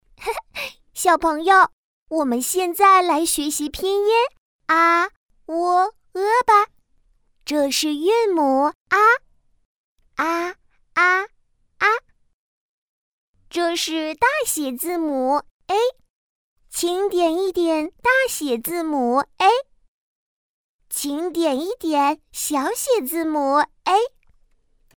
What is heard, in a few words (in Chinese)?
女童 拼音; 彩尾熊